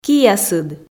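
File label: "киясыд", Komi, female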